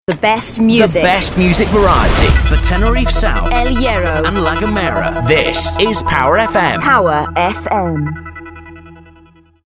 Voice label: best music variety m&f; jingles